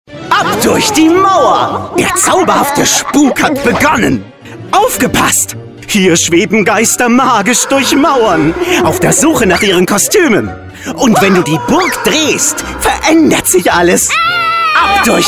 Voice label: Werbung gruselig freundlich unheimlich; Ab durch die Mauer; Monster Facctory

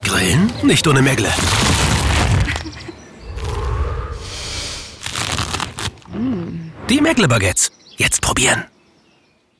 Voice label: Werbung TV, Commercial, German; Meggle

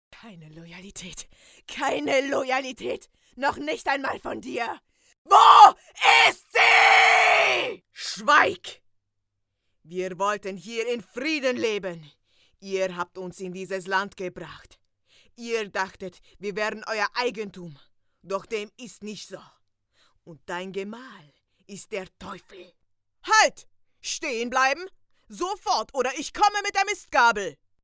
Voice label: Hoerspiel Medley energisch

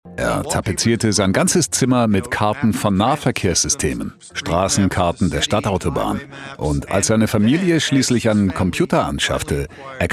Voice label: Doku; Bloomberg; Twitter

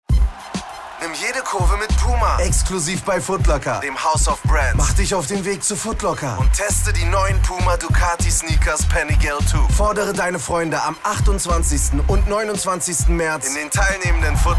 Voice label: sprecher spot; footlocker

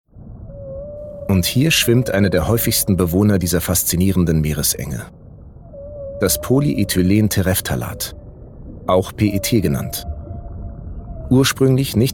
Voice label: Spot; Meeresbewohner

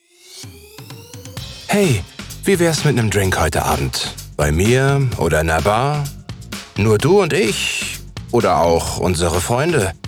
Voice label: Werbespot; Limes

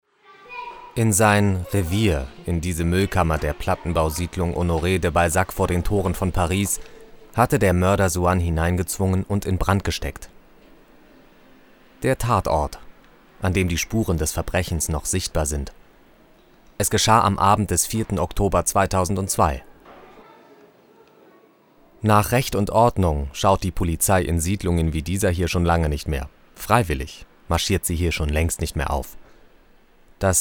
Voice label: Doku, ernst sachlich kommentierend; TV; Problemviertel

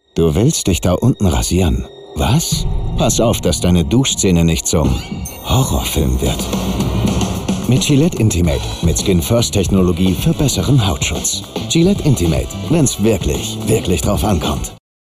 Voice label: Werbung Intimate Rasierer TVC Werbespot DE AT; Gillette

